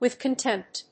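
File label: "with contempt", English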